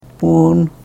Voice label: "puun", karuk